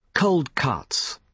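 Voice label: "cold cuts", British English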